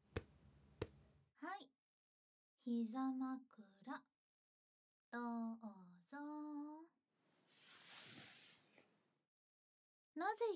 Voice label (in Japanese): 耳マッサージ, ASMR; 耳マッサージで性欲を高ぶらせてくる晴は、本番中出しに酔う